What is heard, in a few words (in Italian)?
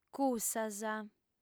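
"cussas", lingua sarda, female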